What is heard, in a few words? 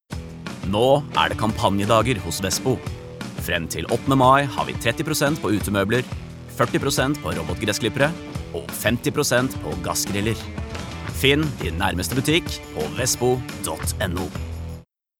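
no commercial, Norwegian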